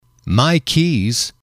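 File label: "my keys", English, male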